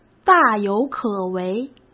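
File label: "大有可为", Mandarin Chinese; chinese flashcards; hsk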